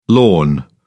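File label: "lorn", British English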